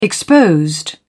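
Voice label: "exposed", British English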